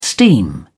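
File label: "steam", British English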